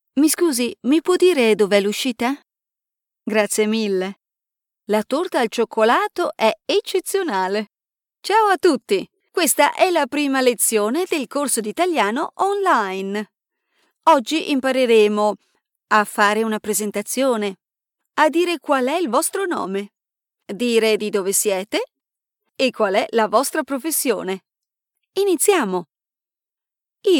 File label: Language course Adult